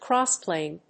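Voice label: "Crossplane", English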